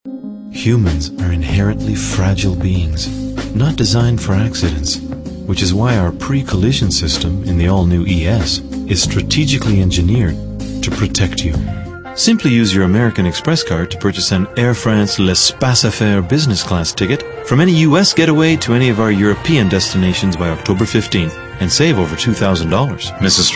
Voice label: male, sophisticated

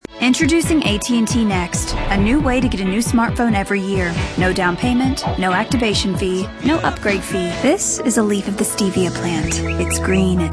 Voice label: female, late 20s mid 30s contemp

